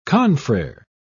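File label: "confrere", British English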